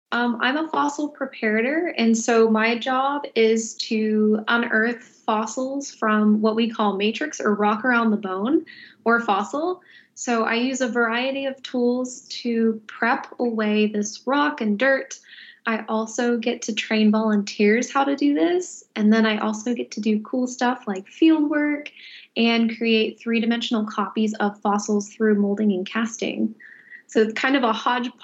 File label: Voice Over